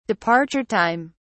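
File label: "departure time", British English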